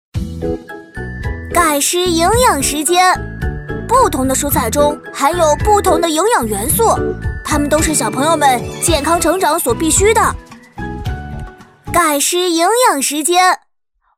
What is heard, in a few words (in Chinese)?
女, 仿童, 正太音